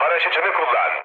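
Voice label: Turkish bailout, mood low, speech; War Thunder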